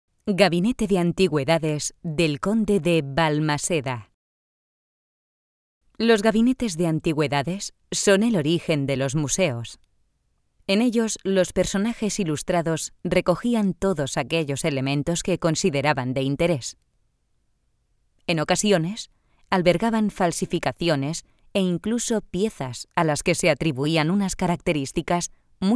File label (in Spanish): audioguia; audioguia museo de la mineria; esp